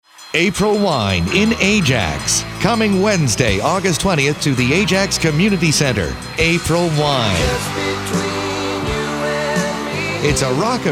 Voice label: Male - No Tones; Cupe 2008